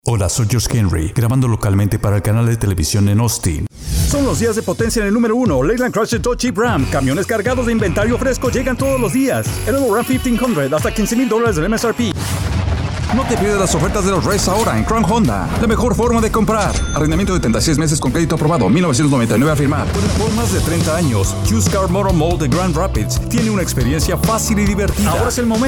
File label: Male